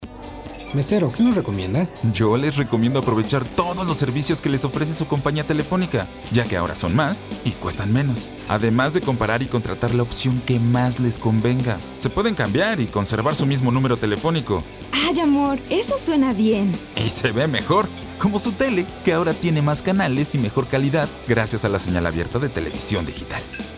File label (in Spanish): radio, Campañas; Logros IFT; Comisión Reguladora de Telecomunicaciones - IFT; restaurante